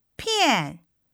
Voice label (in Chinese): "片", Chinese